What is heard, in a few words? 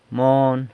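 "mon", San Lucas Quiaviní Zapotec; doll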